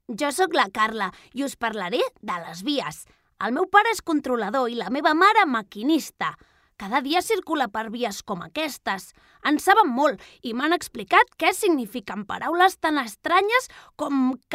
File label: female catalan kid comercial